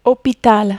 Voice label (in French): "hopital", French